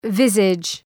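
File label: "visage", English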